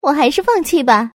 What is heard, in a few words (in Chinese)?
fangqi, man